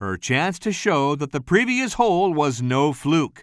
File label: commentator